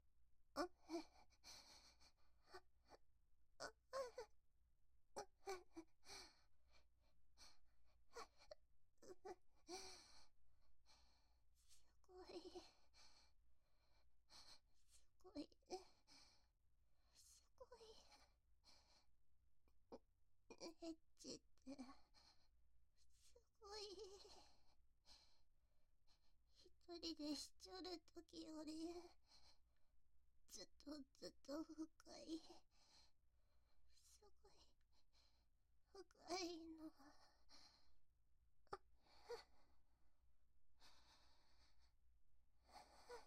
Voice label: ピロートークを楽しんで; ピロートークが盛り上がって…

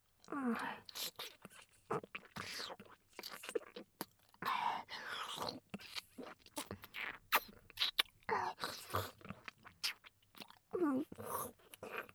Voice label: 射精ボイスなし, ASMR

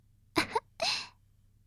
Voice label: 妹 台詞

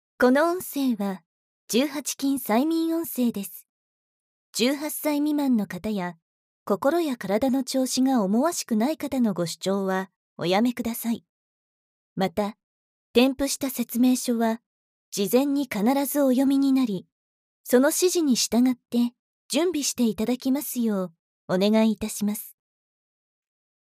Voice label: 催眠誘導

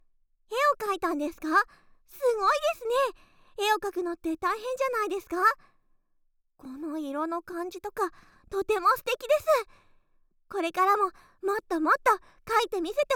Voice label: 褒め お絵描きしてえらい！

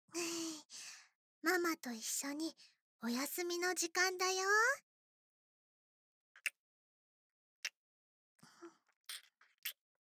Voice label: 添い寝（左右反転BGMなし）; ママ; 癒し系専属ナースのゆいちゃんと菜々子ママに甘々&バブみで包まれちゃうバイノーラル

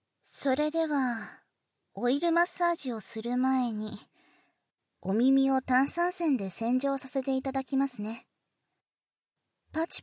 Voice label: 耳洗浄+オイルマッサージ, バイノーラルマイク 効果音あり, ASMR; 手コキ

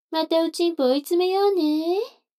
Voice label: 女の子 台詞, ASMR

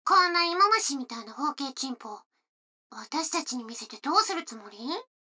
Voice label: 女の子 台詞

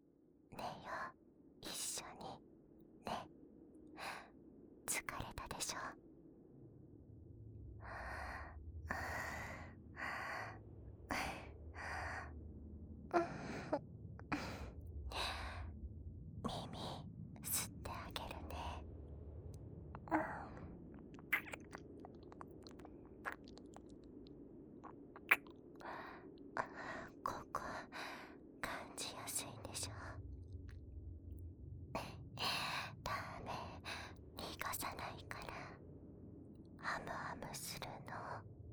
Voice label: 【添い寝】心音なし; 私も…眠くなってきた…。

